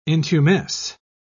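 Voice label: "intumesce", English, uk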